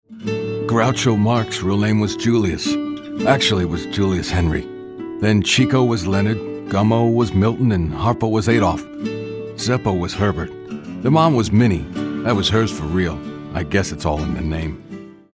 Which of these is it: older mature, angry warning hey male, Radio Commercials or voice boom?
older mature